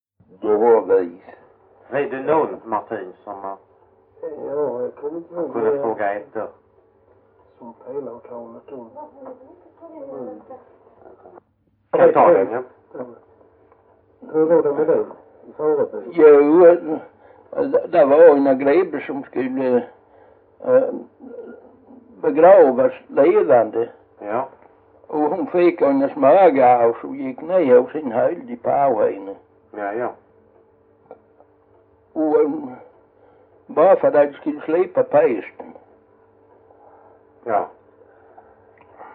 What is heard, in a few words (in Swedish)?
Jämshög BLEK, dialekter; Folkminnen; Svenska sagor